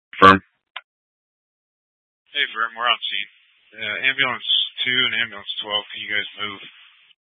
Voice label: Incident Tac 2, Scanner audio recording